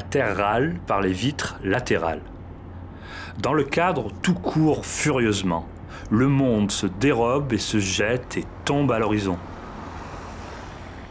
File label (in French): En voiture francais; L'homme sans paysage, battre la campagne